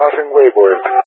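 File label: "passing waypoint", English speech; Allied Flight; DCS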